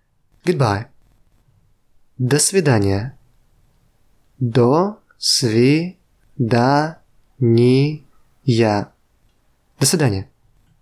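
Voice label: Russian Good bye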